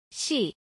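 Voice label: "mr mrs" Korean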